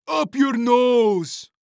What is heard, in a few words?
Cartoon Voice European; Up Your Nose; AdobeCC